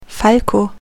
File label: Falko, Male, german names